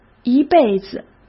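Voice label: "一辈子", Mandarin Chinese; chinese-flashcards; hsk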